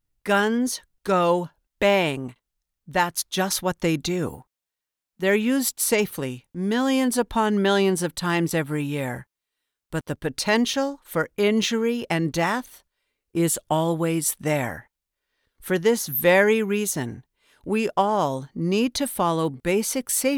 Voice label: PSA Strong outdoors Commanding low tone, voiceover